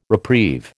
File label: "reprieve", American